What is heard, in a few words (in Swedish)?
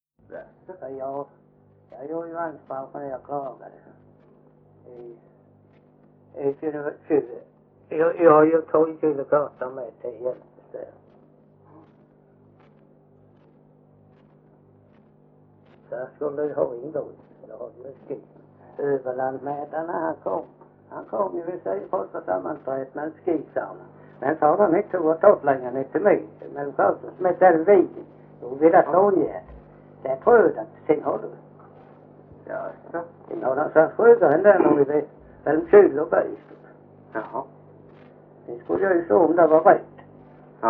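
Visseltofta Skåne, svenska sagor dialekter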